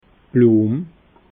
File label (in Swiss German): "bluem", alsace